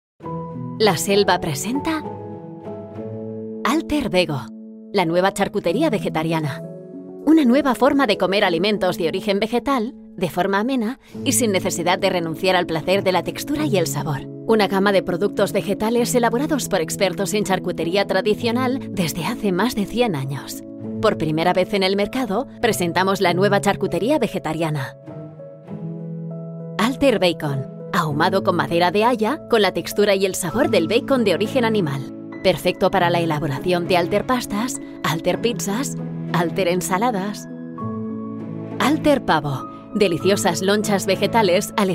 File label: spanish others